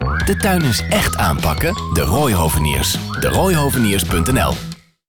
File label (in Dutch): Radiocommercial; De Rooy Hoveniers